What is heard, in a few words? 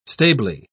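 "stably", British English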